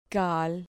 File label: "cal", Spanish syllable